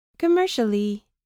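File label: "commercially", English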